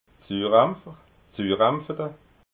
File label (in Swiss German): "surampfer", alsace